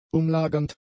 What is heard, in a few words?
"umlagernd", German; adjectives